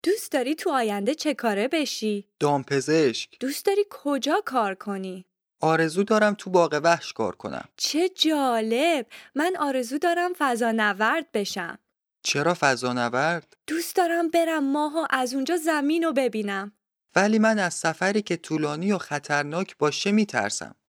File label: Dialogue lesson Farsi